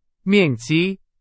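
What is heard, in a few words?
Chinese, vocabulary; hsk 3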